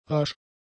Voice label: "rasch", German; adjectives

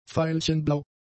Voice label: "veilchenblau", German; adjectives

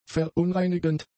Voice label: "verunreinigend", German; adjectives

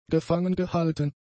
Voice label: "gefangengehalten", German; adjectives

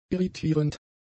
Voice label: "irritierend", german; adjectives

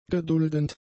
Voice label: "geduldend", German; adjectives

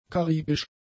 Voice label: "karibisch", German; adjectives

